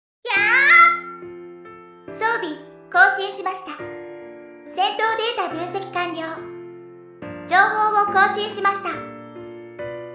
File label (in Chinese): 其他-日语-女